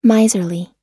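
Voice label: "miserly", American English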